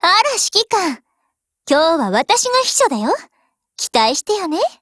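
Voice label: HELLO Japanese, Voice; GF Alarm; Ithaca37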